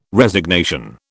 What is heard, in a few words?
"Resignation", British